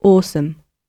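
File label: "awesome", British English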